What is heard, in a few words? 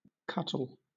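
"cuttle", British English; pronunciations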